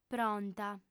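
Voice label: "pronta", Sardinian, female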